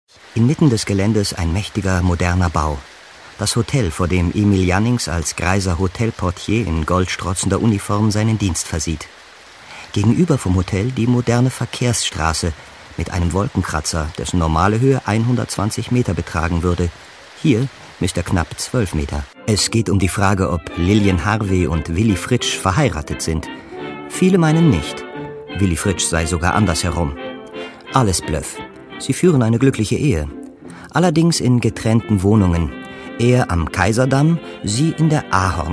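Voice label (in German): commentary, Sanft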